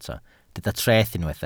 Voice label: "treth", Welsh

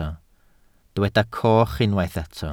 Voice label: "coch", Welsh

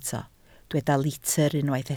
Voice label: "litr", Welsh

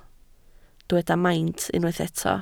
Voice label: "maint", Welsh

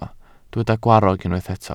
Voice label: "gwarrog", Welsh

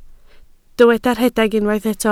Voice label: "rhedeg", Welsh

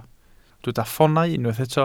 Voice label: "ffoonau", Welsh

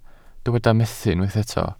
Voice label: "methu", Welsh

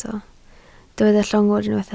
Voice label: "llongwr", Welsh

